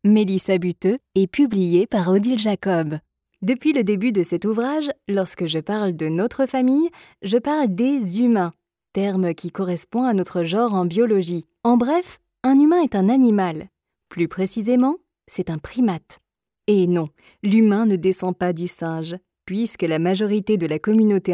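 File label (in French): audiobook science l'intelligence animale ludique et souriante, voix narration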